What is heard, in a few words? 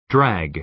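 "drag", American English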